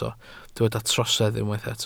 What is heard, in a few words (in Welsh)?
"trosedd", Welsh